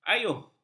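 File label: "ayuh", Malay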